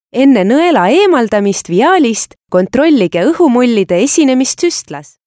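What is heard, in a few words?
Estonian, female, elearning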